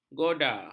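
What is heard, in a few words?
"goda", Malay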